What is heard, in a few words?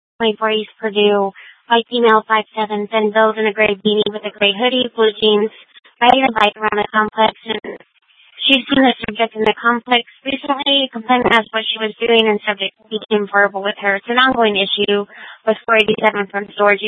Police Dispatch, scanner; Phoenix; 600 Desert Horizon Precinct